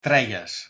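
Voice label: "treies", Proto-Indo-European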